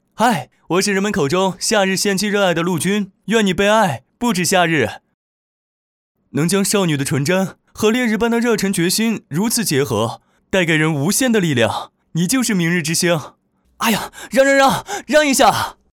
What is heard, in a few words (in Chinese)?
阳光男孩